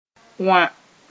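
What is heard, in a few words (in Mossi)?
"wã", Mooré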